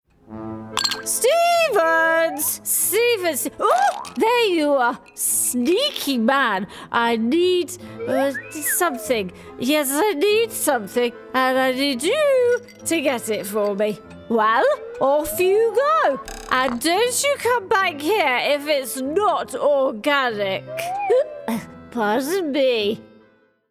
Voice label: Animation Tipsy Posh Lady